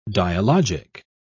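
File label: "dialogic", British English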